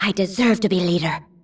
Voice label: female; baldurs1